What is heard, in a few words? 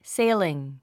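"sailing", English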